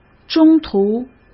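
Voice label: "中途", Mandarin Chinese; hsk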